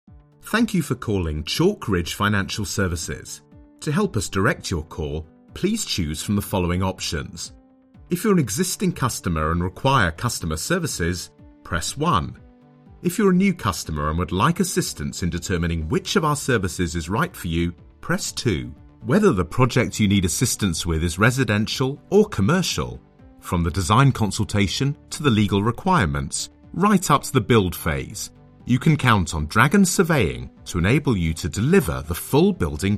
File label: IVR Voiceover Reel